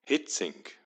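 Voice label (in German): Hietzing, German, at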